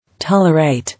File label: "Tolerate", English